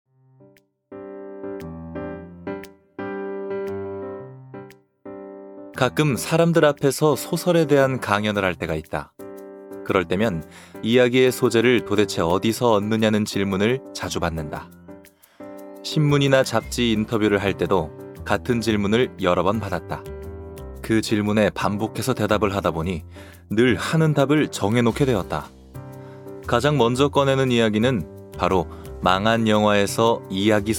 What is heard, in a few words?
korean